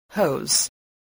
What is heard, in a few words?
"hose", voice spelling